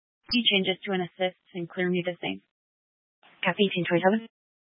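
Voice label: Police Dispatch, Scanner audio recording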